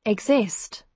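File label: "exist", British English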